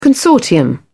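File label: "consortium", English, British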